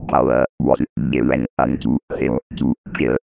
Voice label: robot power to kill; Robotic or Stuttery or Spooky

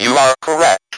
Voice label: speak and spell "YOU ARE CORRECT"

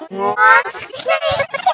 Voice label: eerie pitchy voices

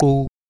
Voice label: "kou", male; MALE MISC

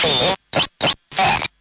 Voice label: the end, Robotic or Stuttery or Spooky

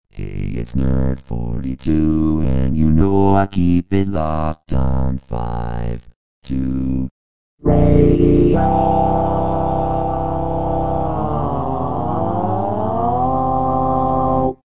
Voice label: locked on five two radio robot; Robotic or Stuttery or Spooky

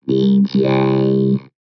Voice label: robot dee jay

